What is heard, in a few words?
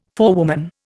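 "forewoman", Indian English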